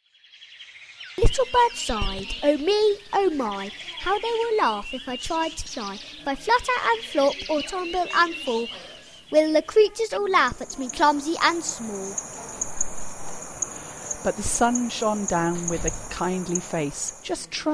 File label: poetry reading by year 4